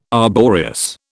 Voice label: "arboreous", British